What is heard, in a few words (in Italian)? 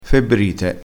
"febbrite", crespinese